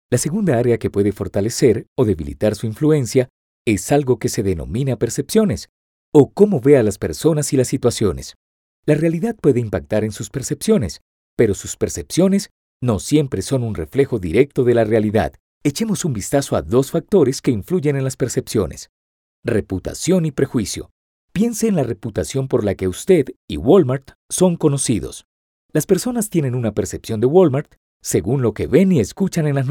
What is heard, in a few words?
Podcast Recording